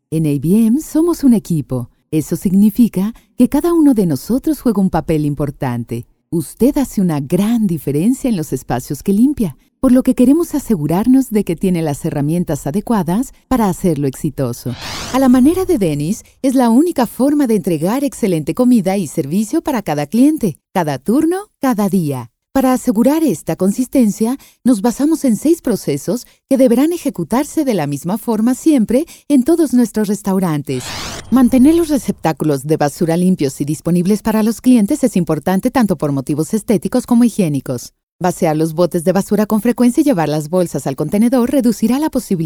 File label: Elearning